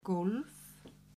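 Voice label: "golf", Icelandic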